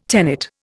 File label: "tenet", Indian